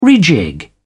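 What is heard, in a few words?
"rejig", British English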